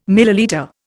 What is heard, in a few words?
"millilitre", Indian